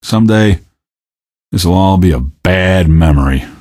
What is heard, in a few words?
question, male; Garry's Mod; hl2